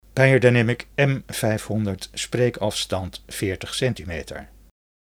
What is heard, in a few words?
Beyerdynamic M500 NL